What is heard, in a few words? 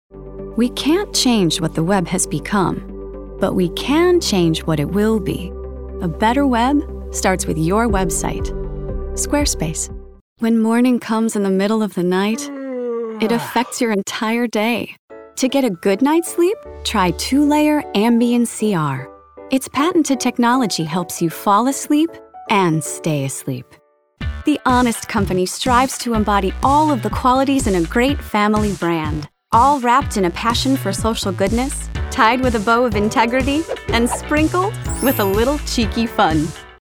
Commercial Calm